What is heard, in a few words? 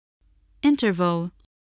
"interval", voice